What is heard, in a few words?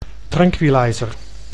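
"tranquillizer", Dutch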